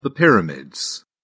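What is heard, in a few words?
"pyramids", British English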